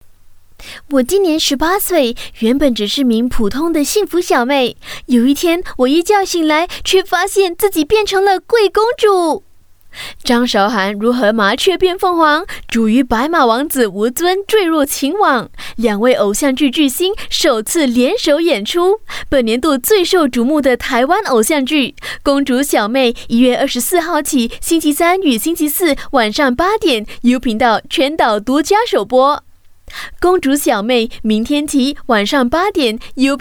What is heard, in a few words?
Mandarin Romantic, China Female